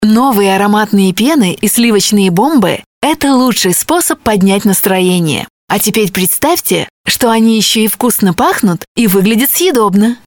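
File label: Russian, voices; audioreklama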